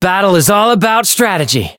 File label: Voice chant